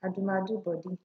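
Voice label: "adomeado body", Nigerian Pidgin, female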